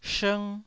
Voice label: "shng1", taiwanese Syllables

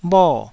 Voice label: "bho4", taiwanese Syllables

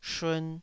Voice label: "shun2", taiwanese Syllables

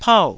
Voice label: "pau4", taiwanese

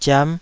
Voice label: "ziam5", Taiwanese syllable

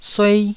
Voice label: "suei1", taiwanese

